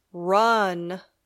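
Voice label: "run", English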